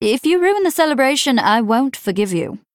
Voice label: Female Night Elf Threaten

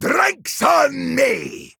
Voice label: Male Dwarf Trigger

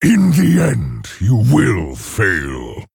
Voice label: Male Orc Play; Minion; Kilrogg

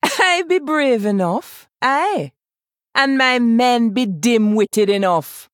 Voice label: Female Troll; Hooktusk

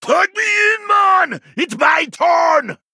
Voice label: Male Troll Play

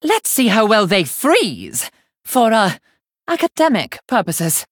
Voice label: Non Binary Blood Elf; Varden Dawngrasp

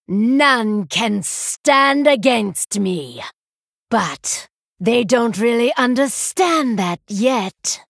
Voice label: Female Night Elf Bark; Lady Anacondra

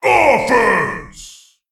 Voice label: Male Golem Attack

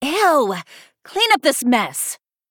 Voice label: Female Blood Elf

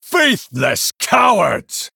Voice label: Male Human Attack

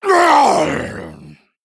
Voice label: Male Troll Death

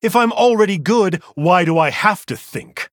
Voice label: Male Human; Hero; Arthas; Uther Mission5 Exchange

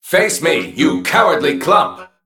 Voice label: Male Mech Play

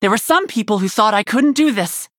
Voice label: Nonbinary Night Elf

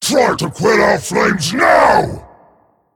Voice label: Male Dragon Play

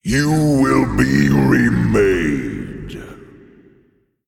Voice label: Male Titan Play

